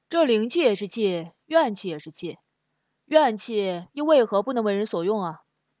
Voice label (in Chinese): 男 少年音